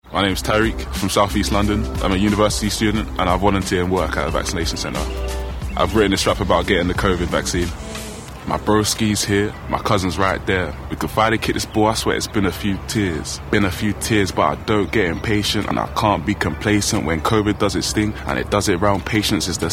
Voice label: radio; NHS; SE London